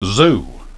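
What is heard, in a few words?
"zoo", English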